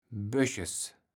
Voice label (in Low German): "Böches", kaevels platt